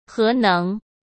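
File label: "核能" Mandarin Chinese; chinese flashcards; hsk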